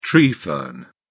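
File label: "tree fern", British English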